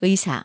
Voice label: "의사", korean; vowel